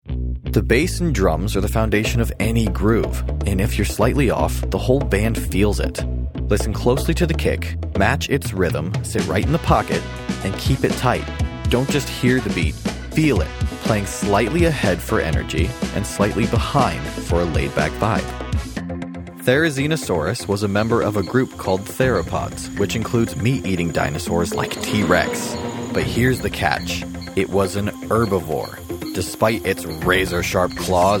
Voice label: Narration, Audiobook & Long-Form Narration; Narration Demo